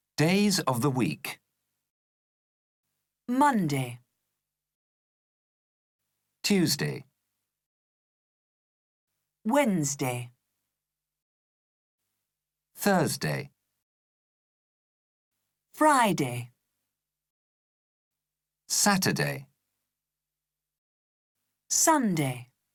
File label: Days of the week, Learn English Speak English